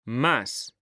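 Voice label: "mas", Spanish, male; mekanta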